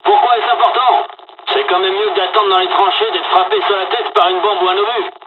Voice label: dialog, dialogs french; War Thunder